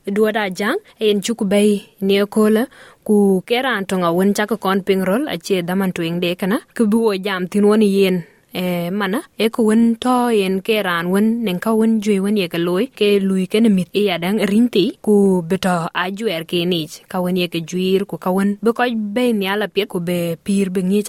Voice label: dinka; Intergenerational Trauma; SBS Dinka